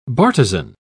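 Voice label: "bartizan", British English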